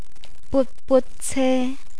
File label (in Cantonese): but but ce, Cantonese, vehicle